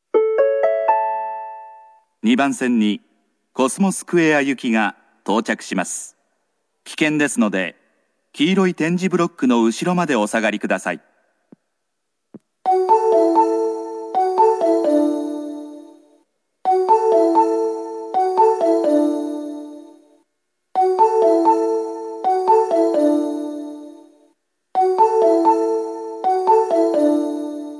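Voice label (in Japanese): 大阪メトロ中央線駅放送, コスモスクエア行き; (延伸前更新)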